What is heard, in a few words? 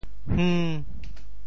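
"hng5", Teochew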